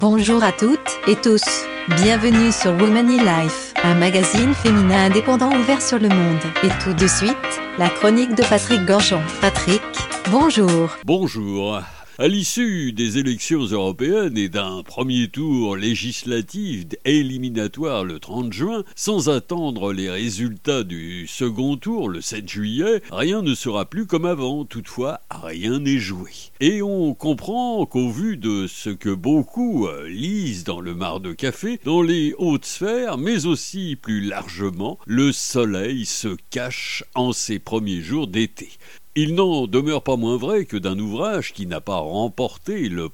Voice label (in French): voix off